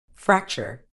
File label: "fracture", medical English